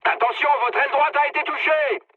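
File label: right wing, mood high, French; War Thunder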